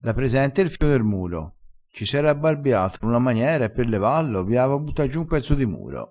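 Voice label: "abbarbiato", Italian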